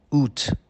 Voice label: Aout Farsi

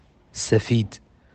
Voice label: "Blanc" Farsi

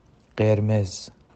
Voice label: Rouge, Farsi

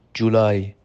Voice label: Juillet, Farsi

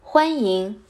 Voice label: Bienvenue, Mandarin

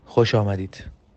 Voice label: Bienvenue Farsi

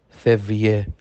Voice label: "Fevrier" Farsi

